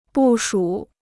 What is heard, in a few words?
"部署", Chinese